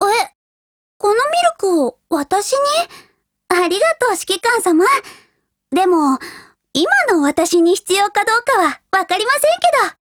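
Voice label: DIALOGUE Japanese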